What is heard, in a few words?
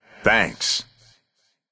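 "Thanks", male; smite; olorun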